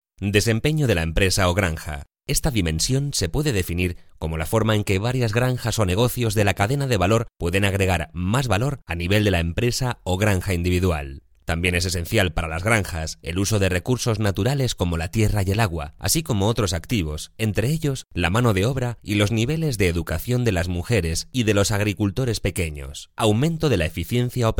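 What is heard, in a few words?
Spanish Spain elearning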